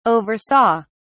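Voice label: "oversaw", English, British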